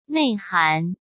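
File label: "内涵", Mandarin Chinese; hsk; chinese flashcards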